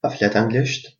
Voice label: do you speak english, albanian gheg; A flêt ãnglisht?

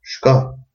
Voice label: go away, albanian gheg

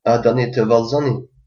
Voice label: dance, albanian gheg

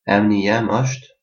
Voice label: my name is, albanian gheg

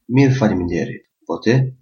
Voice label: fine, albanian gheg

